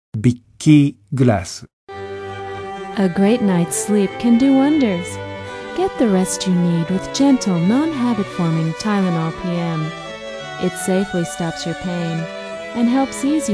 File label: USA F; narrator